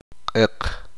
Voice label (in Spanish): uspanteko negro